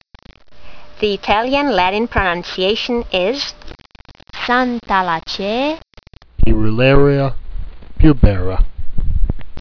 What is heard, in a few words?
latin; Pyrularia pubera